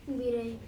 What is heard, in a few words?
"birei" bat, andamanese